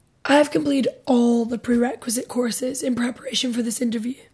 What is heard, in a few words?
female filler; interviewee